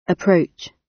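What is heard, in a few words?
"approach", British; Space, the planets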